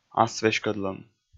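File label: "асвеськӧдлӧм", Komi, male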